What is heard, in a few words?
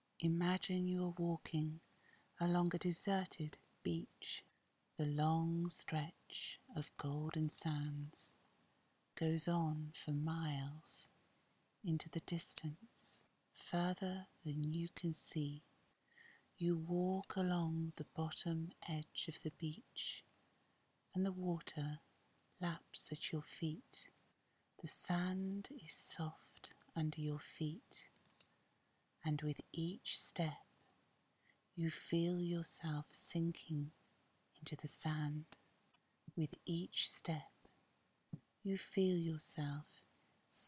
Wellbeing Relaxation Meditation App